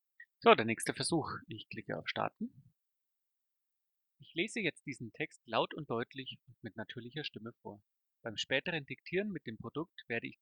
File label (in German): einstellung mikro pass